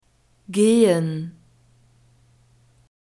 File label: "gehen", German